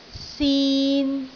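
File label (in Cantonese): "sin3", Cantonese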